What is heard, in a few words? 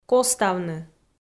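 "коставны", Komi, female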